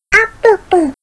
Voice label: chat

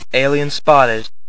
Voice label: alien spot

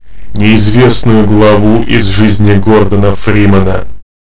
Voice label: announcer

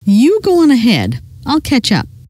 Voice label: go on, scientist female

